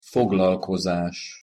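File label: "foglalkozas", Hungarian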